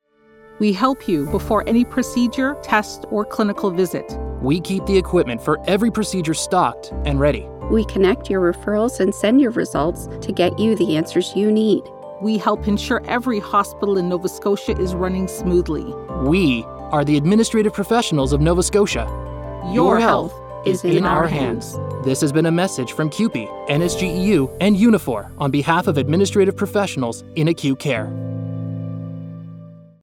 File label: radio spot